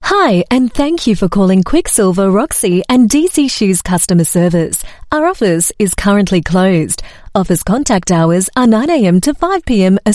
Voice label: AU MidAtlantic English Welcome Message, Australian Female